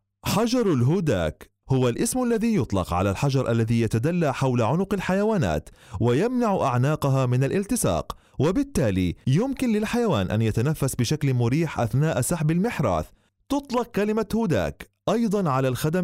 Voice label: arabic